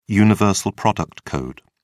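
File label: "universal product code", English, British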